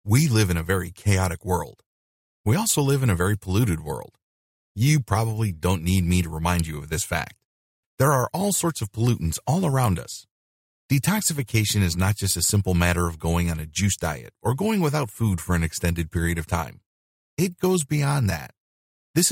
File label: Sales Video Voice Over; detox yourself